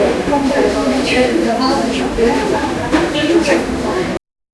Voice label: voices and chatter inside, store

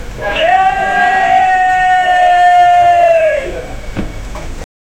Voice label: managers yell at workers, factory

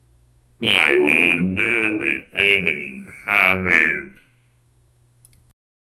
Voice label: a fat person saying, announcement

